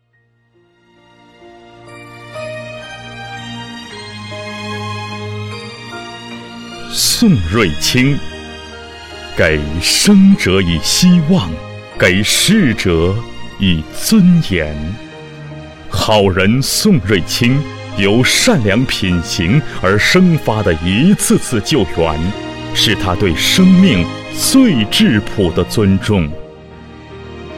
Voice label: 男 宣传片 颁奖典礼; 河北感动省城

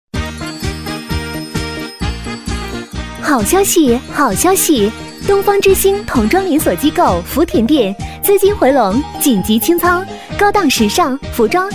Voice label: 女1 促销 童装连锁机构, 国语女声配音, 磁性; 东方之星童装连锁机构

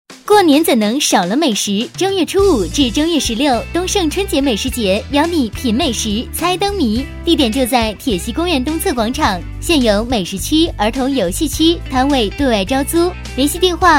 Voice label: 女 广告 欢快 美食节 音乐; 东盛美食节

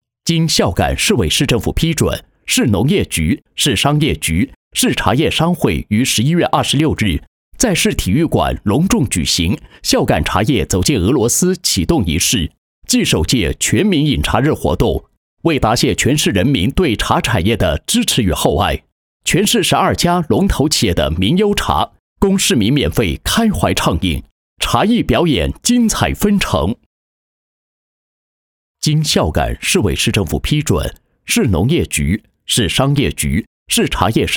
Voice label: 男 开场 茶叶走进俄罗斯启动仪式开场配音; 孝感

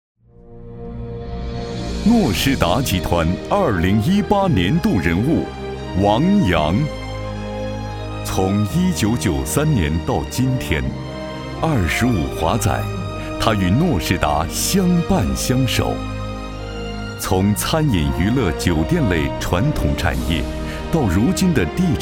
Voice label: 男 年度人物颁奖; 诺仕达集团

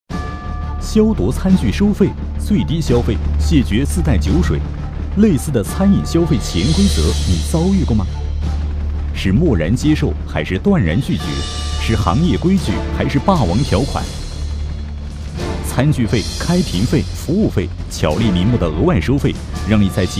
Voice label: 男 电视消费栏目配音, 浑厚磁性, 国语男声配音

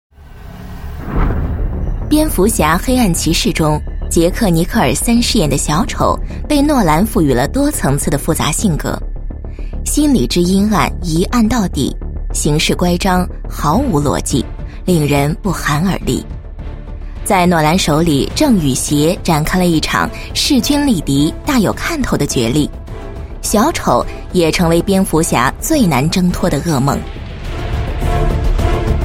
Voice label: 国语女声配音 解说; 第十放映室风格; 蝙蝠侠：黑暗骑士

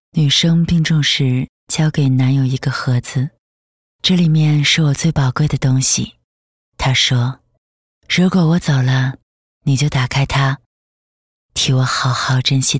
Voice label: 微电影, 恋人对话, 素人温暖

